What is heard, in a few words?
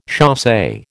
"chasse", American